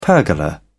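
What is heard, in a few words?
"pergola", British English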